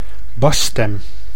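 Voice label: "basstem", Dutch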